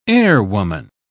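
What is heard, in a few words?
"airwoman", British English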